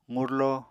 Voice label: "qurlo", Apatani